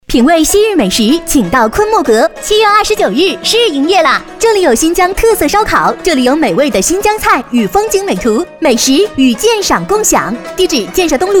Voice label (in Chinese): 广告开业; 昆莫阁